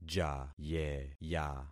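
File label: "jayeya", concatenated syllables; Affixing experiment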